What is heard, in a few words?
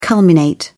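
"culminate", British English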